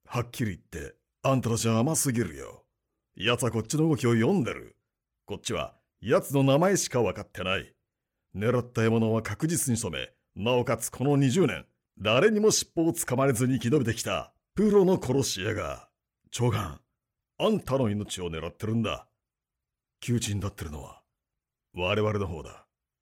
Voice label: male; 渋い男性